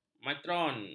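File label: "matron", Malay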